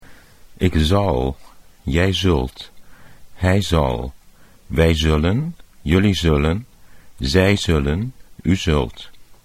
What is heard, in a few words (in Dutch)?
"ik zal", Dutch